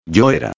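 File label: "era", Spanish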